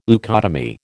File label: "leucotomy", American